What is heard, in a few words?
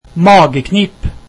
"stomach ache", Norwegian